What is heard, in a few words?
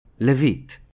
"Levite", Hebrew